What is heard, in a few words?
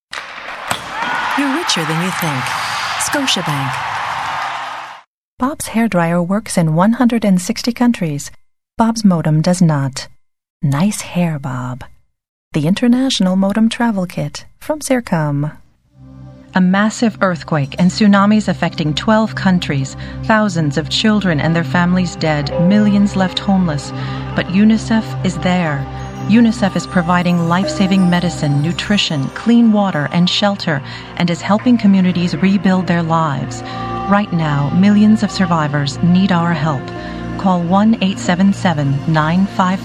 english, voice talent; Toronto Canada